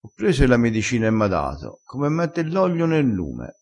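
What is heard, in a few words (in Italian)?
"mette l oglio", dialettale crespinese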